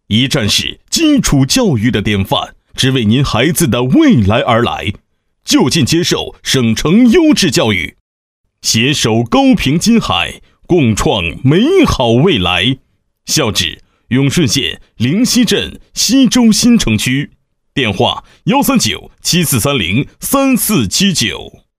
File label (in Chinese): 男 广告 广告类学校